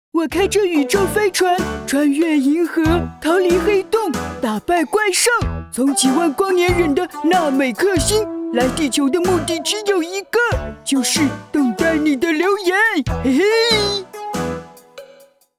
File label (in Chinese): 彩铃 特色版; 小新